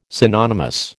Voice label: "synonymous", American